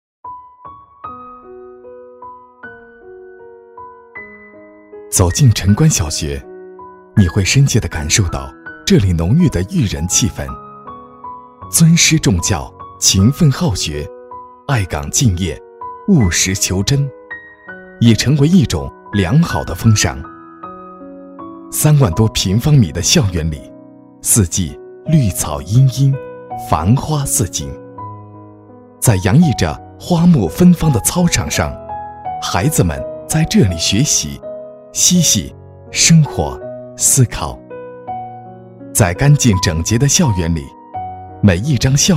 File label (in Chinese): 男 专题 校园解说 讲述